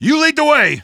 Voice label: lead the way, male; Half-Life 2